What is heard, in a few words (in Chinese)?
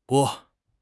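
"拨", Chinese; HSK6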